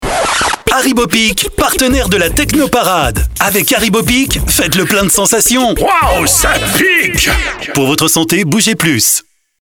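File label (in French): pack shot, voix-off pour publicités radio; Billboard HARIBO PIK / TECHNO Parade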